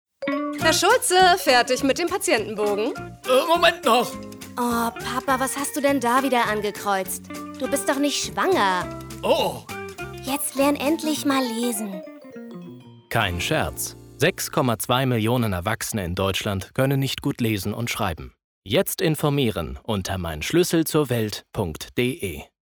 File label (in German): Funkspot